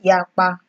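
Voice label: "yakpa", Nigerian Pidgin, female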